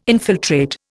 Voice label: "infiltrate", Indian

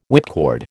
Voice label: "whipcord", American

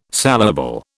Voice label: "saleable", British

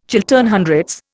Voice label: "Chiltern Hundreds", Indian

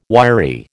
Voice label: "wiry", American

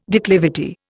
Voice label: "declivity", Indian

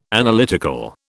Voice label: "Analytical", British

